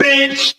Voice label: "bitch", expletives